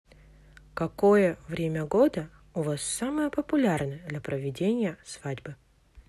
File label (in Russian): свадьба русский